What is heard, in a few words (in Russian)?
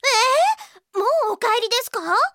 zvuki s golosom iaponskoi anime devushki